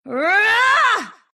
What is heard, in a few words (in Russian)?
grunt; Dota 2